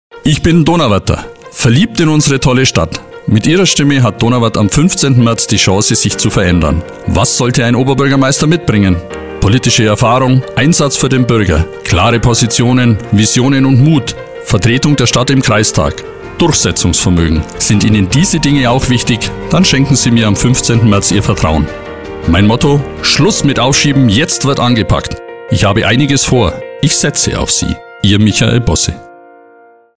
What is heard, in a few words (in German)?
Wahlwerbung